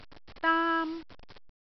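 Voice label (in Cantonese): "daam1", Cantonese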